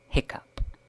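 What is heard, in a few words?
"hiccough", British English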